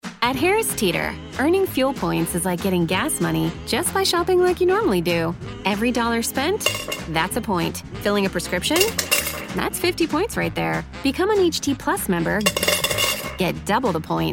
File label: ENERGETIC MOM FUN; Harris Teeter